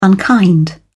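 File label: "unkind", English, British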